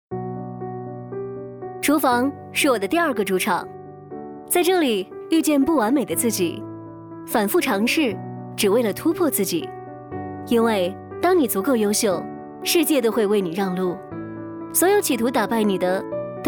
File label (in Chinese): 女,有态度; 油烟机